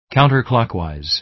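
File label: "counterclockwise", American English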